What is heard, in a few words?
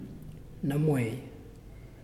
"Woman", Muyuw; Lae Unitech